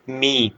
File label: short voyels arabic alphabet